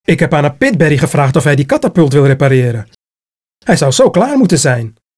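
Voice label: Bonus Dutch; arthur and the revenge of maltazard; wii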